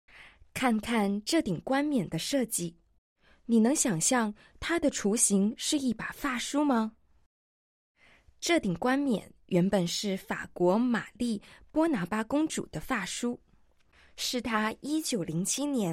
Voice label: Madarin, audio guide